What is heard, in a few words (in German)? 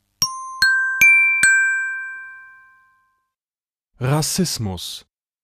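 "Rassismus", German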